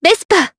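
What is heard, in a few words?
Vox Japanese; Talisha; Vespa; kings raid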